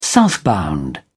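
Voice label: "southbound", English, British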